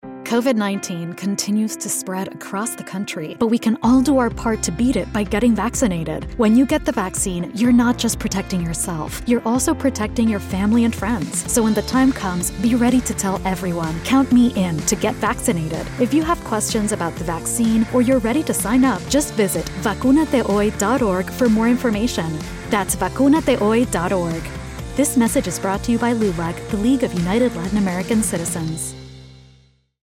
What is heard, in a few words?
radio psa english; lulac; vacunate hoy